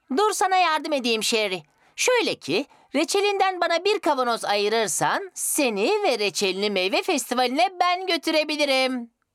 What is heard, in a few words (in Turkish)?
cocuk ornek